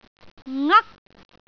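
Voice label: "ngak1", Cantonese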